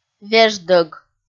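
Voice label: "веждӧг", Komi, female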